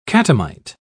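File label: "catamite", British English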